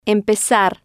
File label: "empezar", spanish